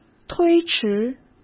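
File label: "推迟" Mandarin Chinese; chinese flashcards; hsk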